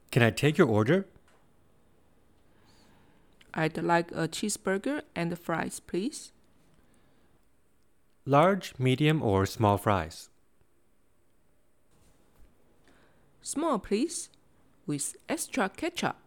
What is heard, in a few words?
basic english dialogs fast food